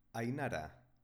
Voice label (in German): "ainara", baskische Mädchennamen, Aussprache